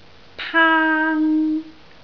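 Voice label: "paang1", Cantonese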